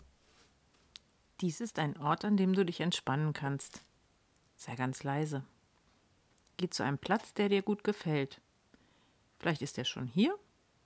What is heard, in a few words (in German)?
Blumenpracht deutsch Spielidee; nienburg; blumenpracht im stadtteilpark